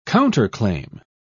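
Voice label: "counterclaim", British English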